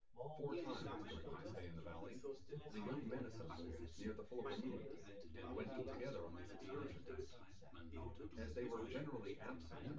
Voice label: male synth conv 1m sim, male; SASSEC07